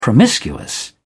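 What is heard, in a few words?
"promiscuous", British English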